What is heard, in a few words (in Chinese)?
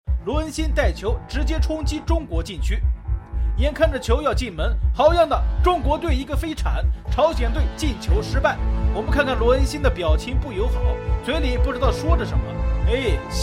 体育解说; 洋瓶